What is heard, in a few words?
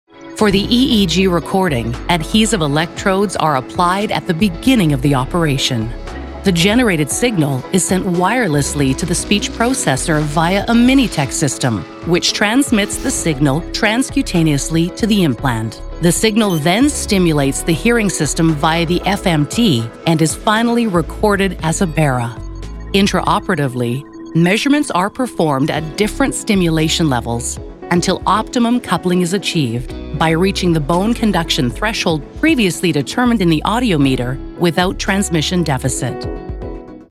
eLearning; Married With Mics; Univ of Wurtzberg Medical university doctor technology technical